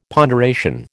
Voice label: "ponderation", American